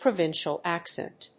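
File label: "provincial accent", English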